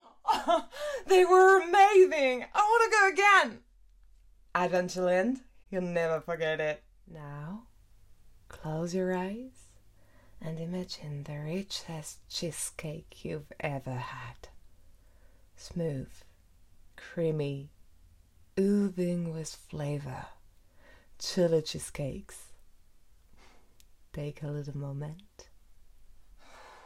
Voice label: publicity, english; voice

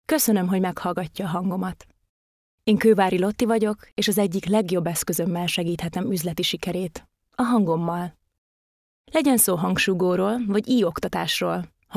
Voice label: Hungarian Voice Sample - Audiobooks

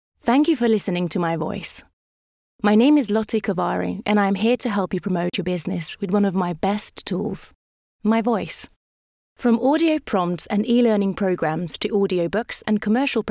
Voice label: British VO; voice

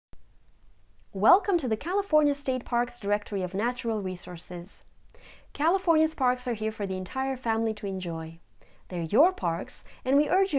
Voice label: English General American

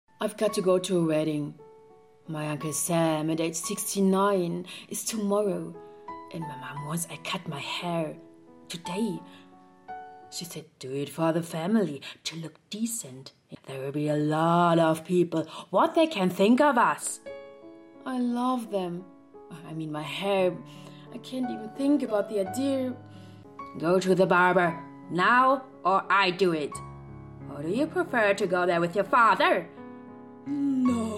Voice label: Cartoon English; voice; SBGK-Berlin

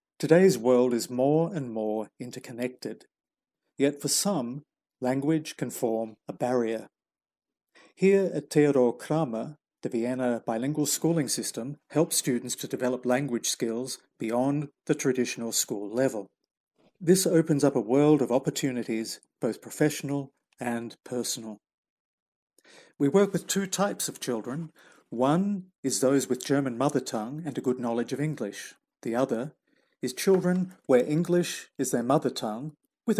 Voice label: Informational; voice

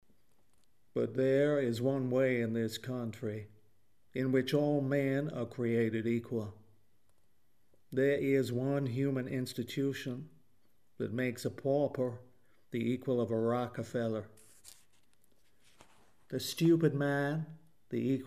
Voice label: Accents American Southern; voice

